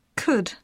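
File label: "could", English; poitiers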